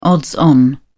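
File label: "odds on", English, British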